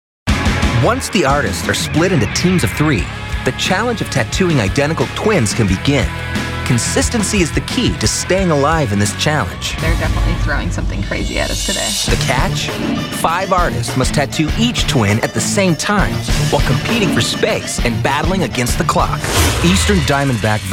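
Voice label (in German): US English, Dynamisch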